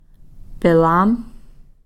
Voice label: having nothing pilam